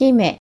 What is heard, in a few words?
"hime", Japanese; Example Words